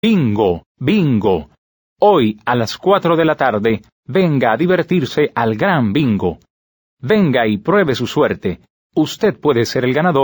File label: Dialogue, Spanish